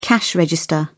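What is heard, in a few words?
"cash register", British English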